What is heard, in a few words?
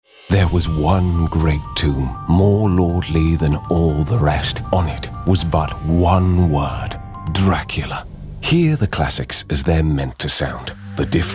Commercial Showreel